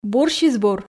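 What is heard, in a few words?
"бурсьыс-бур", Komi, female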